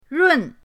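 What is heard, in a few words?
"run", Mandarin pinyin, fourth tone; pinyindct-open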